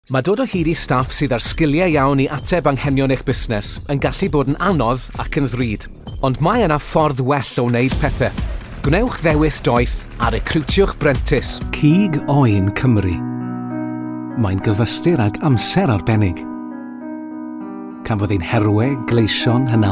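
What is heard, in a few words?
Welsh, male; voice-over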